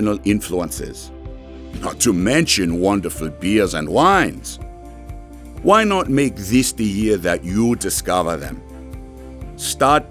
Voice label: German Accent; Omnivox

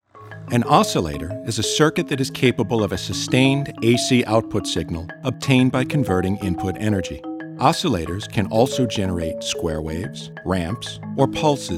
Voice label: English Narration; Omnivox

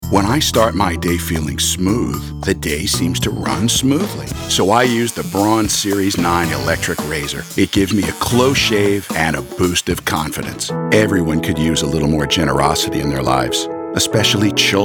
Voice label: English Commercials; Omnivox

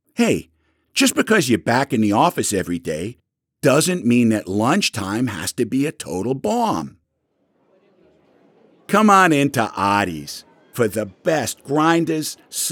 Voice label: Boston Accent; Omnivox

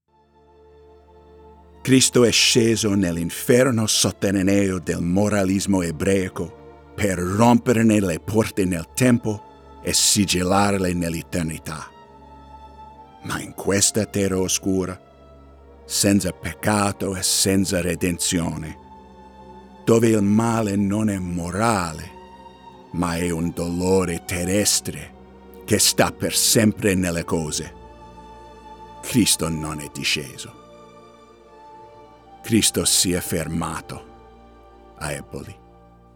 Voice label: Italian Language; Omnivox

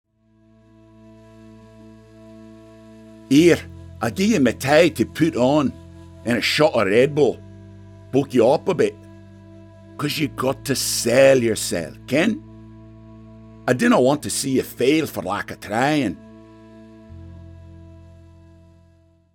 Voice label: Scottish Accent; Omnivox

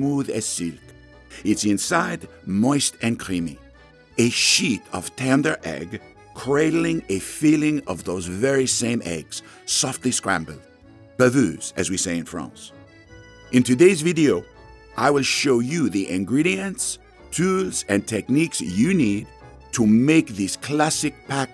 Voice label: French Accent; Omnivox

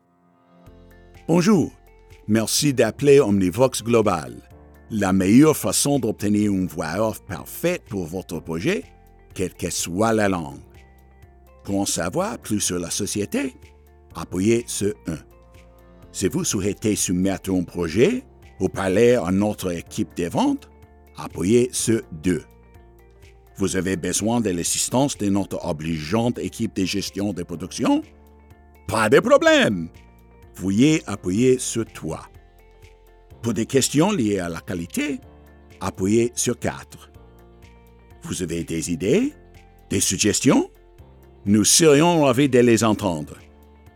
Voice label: French Language; Omnivox

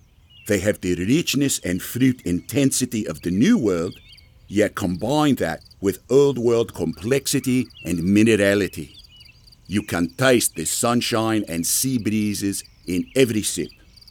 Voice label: South African Accent; Omnivox

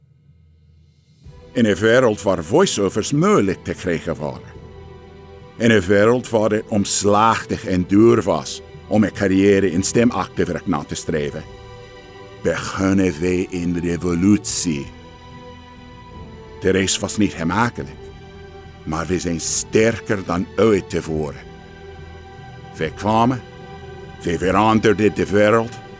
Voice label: Dutch Language; Omnivox

